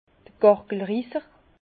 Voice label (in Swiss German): "gargelrisser", Bas Rhin; vin; jabloir